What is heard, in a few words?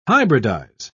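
"hybridise", British English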